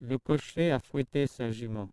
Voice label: male Trans LPC